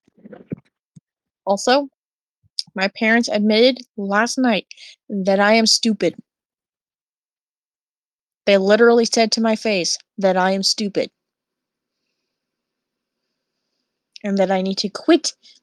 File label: voice message